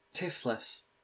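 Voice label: "Tiflis", British English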